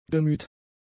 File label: "gemüht", German; adjectives